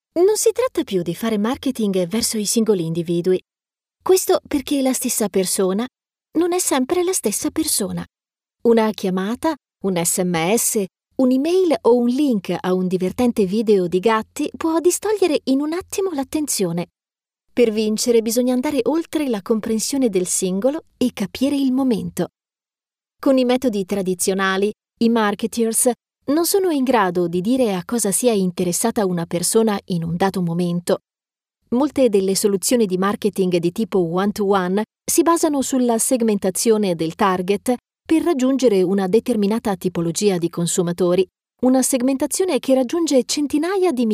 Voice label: italian female corporate

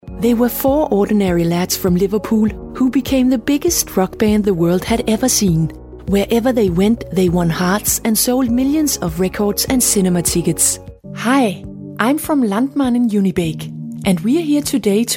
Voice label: danish female danish accent

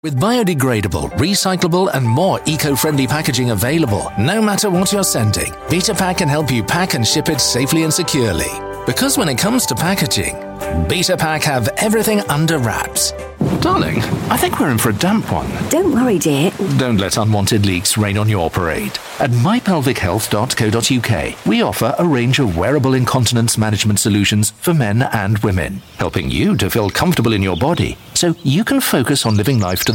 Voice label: commercials typical style

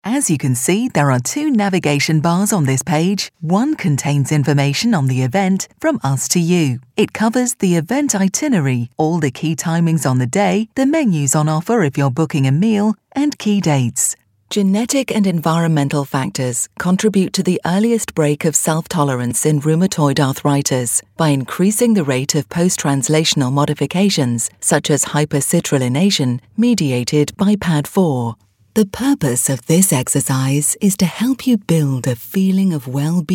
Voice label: elearning

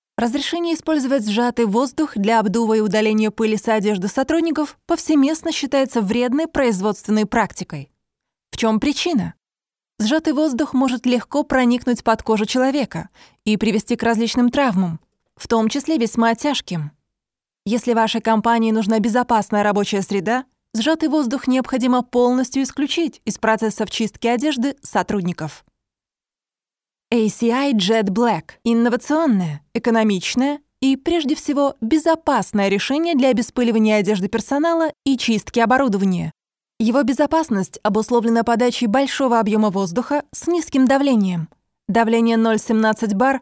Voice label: russian ukranian russian

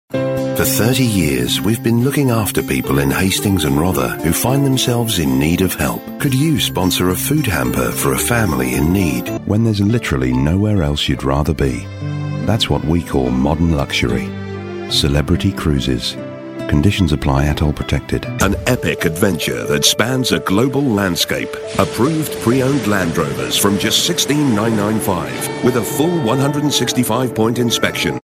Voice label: soft sell commercial

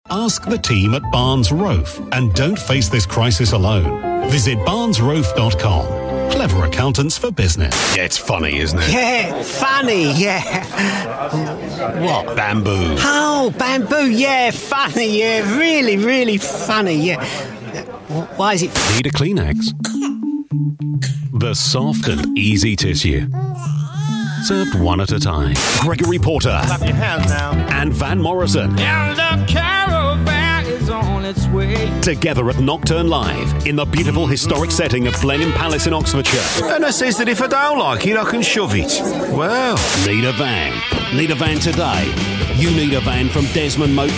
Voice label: commercials

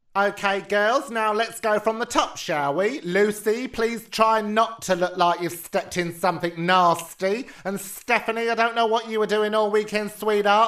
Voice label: camp dance teacher

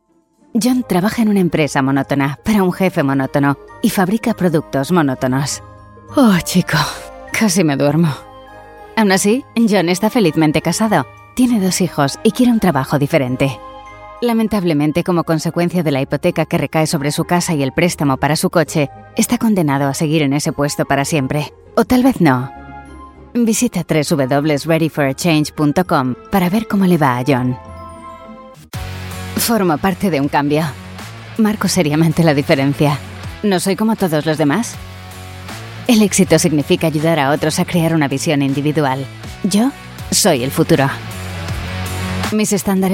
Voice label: spanish narration

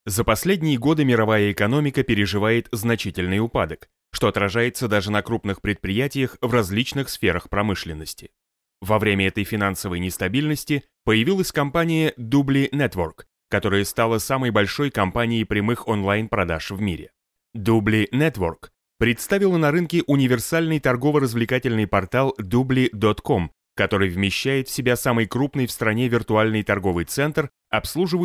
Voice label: russian voice corporate

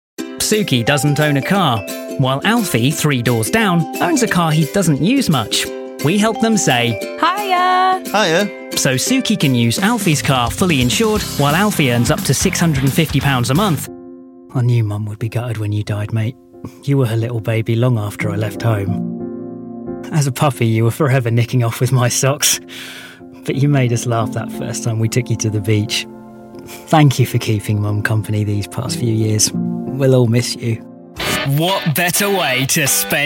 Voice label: commercial

